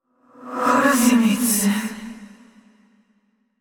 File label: frustrated; yamiko